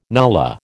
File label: "nullah", American